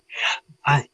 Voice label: Voice Acting, es